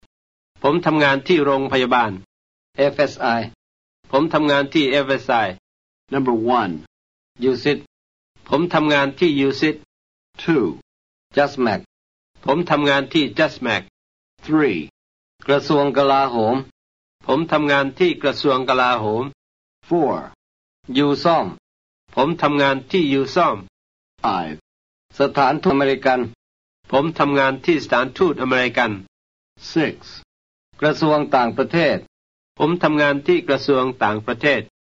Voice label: G Substitution Drill, Thai